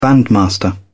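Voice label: "bandmaster", British English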